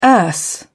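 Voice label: "erse", British English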